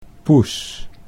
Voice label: "poes", Dutch

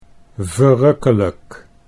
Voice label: "verrukkelijk", Dutch

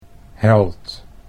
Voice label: "held", Dutch

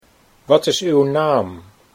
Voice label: wat is Uw naam, Dutch

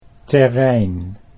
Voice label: "terrein", Dutch

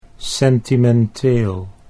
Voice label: "sentimenteel", Dutch

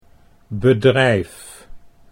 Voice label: "bedrijf", Dutch

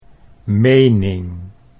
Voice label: "mening", Dutch

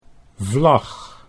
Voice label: "vlag", Dutch

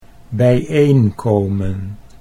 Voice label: "bijeenkomen", Dutch

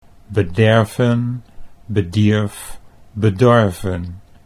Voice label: "bederven bedierf bedorven", Dutch

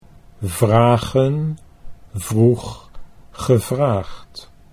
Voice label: vragen vroeg gevraagd, Dutch

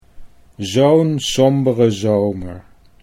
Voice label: zo n somber ezomer, Dutch

